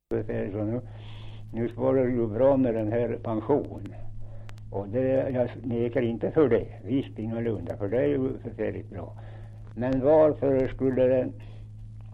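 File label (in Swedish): Kopparberg Västmanland, dialekter; Folkminnen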